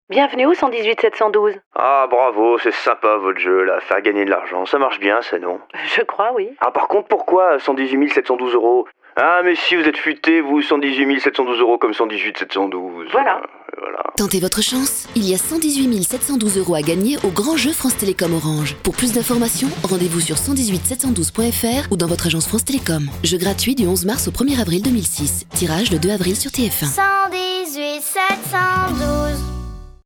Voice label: radio